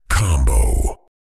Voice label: Deep male voice combo